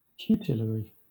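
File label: "tutelary", British English; pronunciations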